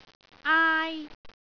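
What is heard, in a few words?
"aai1", Cantonese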